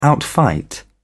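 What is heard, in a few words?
"outfight", British English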